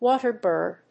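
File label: "water bird", English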